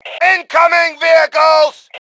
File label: Warn Vehicle, Male; T2 Male 05